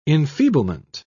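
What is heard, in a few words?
"enfeeblement", British English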